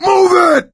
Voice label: emphatic go, survivor voice; source engine; left 4 dead 2